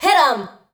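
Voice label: vocal female, Dance Vocals, spoken words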